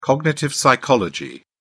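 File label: "cognitive psychology", British English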